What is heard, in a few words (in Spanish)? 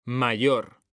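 "MAYOR", male; mekanta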